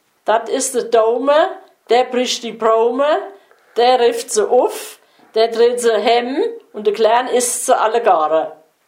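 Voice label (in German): Kinderreim, O-Ton Hunsrück; das is de doume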